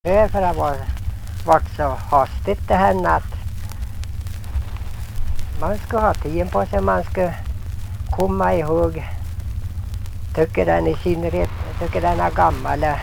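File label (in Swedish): Ljustorp Medelpad, dialekter; Folkminnen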